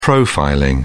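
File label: "profiling", British English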